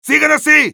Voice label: Medic cheers, es

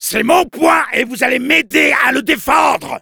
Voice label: soldier help me defend, fr

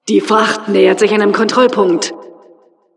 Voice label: announcer cart defender warning, de; Reaktionen der Administratorin

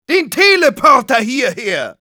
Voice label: need teleporter, de; Medic

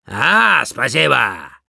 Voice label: thanks ru; Demoman

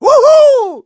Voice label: battle cry, de; Scout

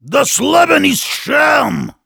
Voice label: positive vocalization, de; Heavy; "Das Leben ist schön!"

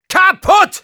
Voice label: Soldier special completed de

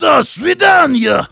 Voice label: heavy thanks, de

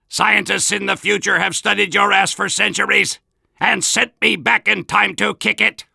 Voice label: Soldier robot

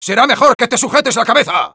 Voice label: Sniper taunts, es

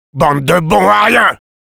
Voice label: sniper taunts, fr; Réponses du Sniper

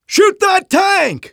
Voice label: Engineer tank shooting

